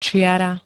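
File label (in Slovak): "čiara", Slovenské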